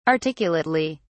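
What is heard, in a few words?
"articulately", English, British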